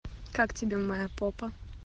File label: how do you like my butt, golos devushki; pak golos devushki